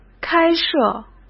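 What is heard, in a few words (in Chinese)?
"开设" Mandarin Chinese; chinese-flashcards; hsk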